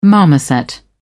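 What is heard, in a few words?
"marmoset", British English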